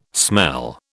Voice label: "smell", British